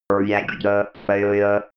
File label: robot voice reactor failure